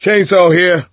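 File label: melee weapons, voice, male; Left 4 Dead 2; coach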